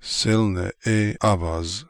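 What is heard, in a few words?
"Selneh eh Avaz", pronunciations; Fourth Way; Beelzebub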